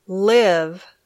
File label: "live", English